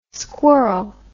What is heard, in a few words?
"squirl", English